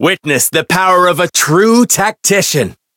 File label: chant; shion